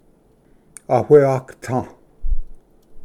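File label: "awiagata", Cherokee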